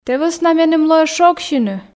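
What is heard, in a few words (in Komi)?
"тэ вӧсна меным лоӧ шогсьыны", Komi, female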